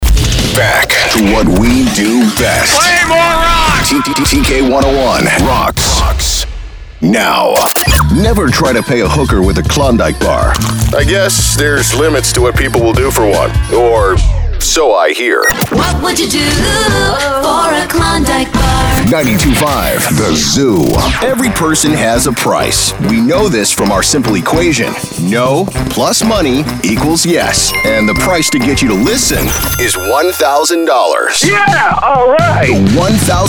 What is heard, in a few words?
men radio imaging